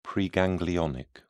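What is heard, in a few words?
"preganglionic", English, British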